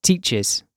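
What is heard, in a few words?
"teaches", British English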